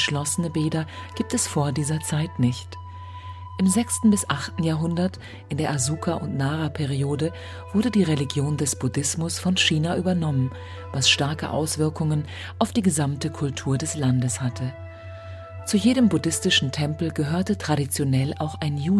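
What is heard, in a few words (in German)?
Auszug Badekultur Japan Erzählstimme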